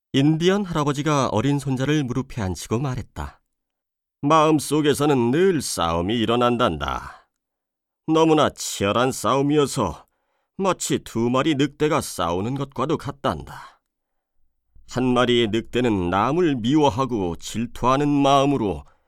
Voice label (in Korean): 내레이션; 도덕